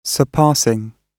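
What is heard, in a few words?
"surpassing", British English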